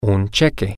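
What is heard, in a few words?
"check", male, Spanish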